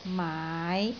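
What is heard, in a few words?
"maai5", Cantonese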